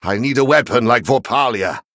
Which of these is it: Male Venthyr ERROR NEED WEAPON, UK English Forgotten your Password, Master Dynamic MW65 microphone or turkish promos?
Male Venthyr ERROR NEED WEAPON